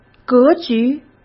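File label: "格局", Mandarin Chinese; hsk; chinese flashcards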